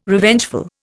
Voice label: "Revengeful", Indian

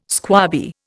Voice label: "squabby", Indian

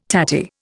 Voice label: "tatty", Indian

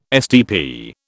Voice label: "STP", British

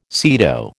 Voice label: "SEATO", American

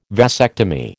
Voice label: "vasectomy", American

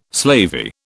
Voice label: "slavey", British

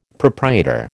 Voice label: "proprietor", American

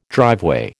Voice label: "driveway", American

